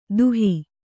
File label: "ದುಹಿ", Kannada